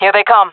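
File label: sees enemy, female; vo; Counter Strike Model Pack; CS:GO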